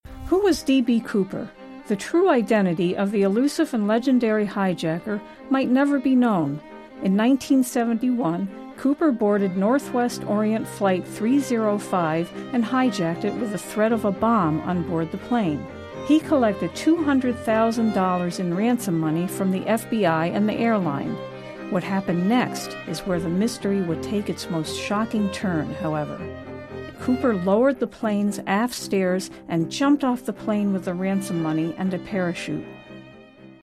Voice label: Documentary